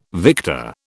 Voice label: "victor", British